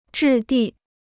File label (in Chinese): "质地", Chinese